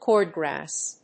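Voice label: "cord grass", English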